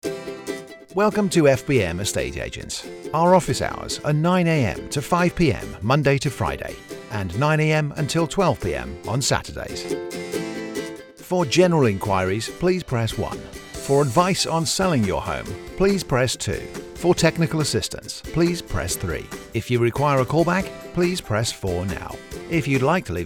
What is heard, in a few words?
interactive answer phone